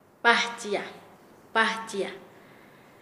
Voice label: Nasa Yuwe; anadir; paez

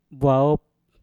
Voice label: "bwaop", Mpur; coklat

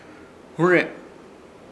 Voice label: "hũre" arder ilumina luz brilla, Ishir Chamacoco

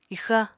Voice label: "ixa", Apatani

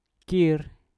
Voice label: "kir", Mpur; lusa

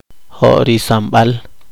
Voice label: poqomchi; horno

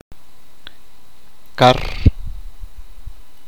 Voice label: pescado, achi

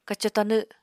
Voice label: "kaco-tanw", Apatani

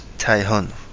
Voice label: Kapingamarangi; high Tide

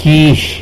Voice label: "quizh", tlacolula; will pay

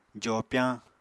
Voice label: "jopyañ", Apatani

